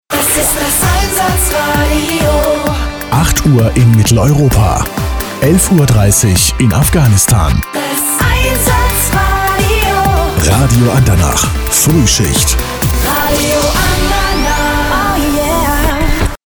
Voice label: radio; andernach